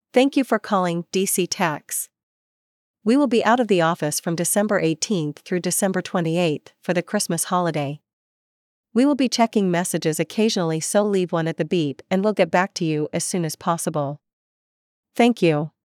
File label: Voicemail greetings, Christmas Holiday; dc Tax, LLC; Narakeet